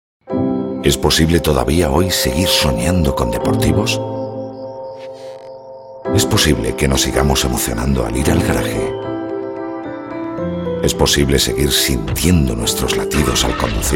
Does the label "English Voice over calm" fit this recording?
No